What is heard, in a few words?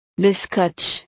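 "le scotch", French; utiles escolares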